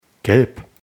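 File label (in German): "gelb", German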